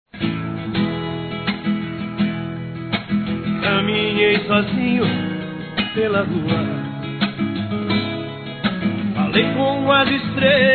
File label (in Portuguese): Telemensagens Amor Fem; Telemensagens 2017